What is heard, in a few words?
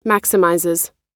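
"maximizes", American English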